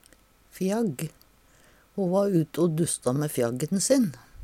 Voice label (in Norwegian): "fjagg", Numedalsmål; Ho va ute o dussta mæ fjagg`n sin.; Substantiv hankjønn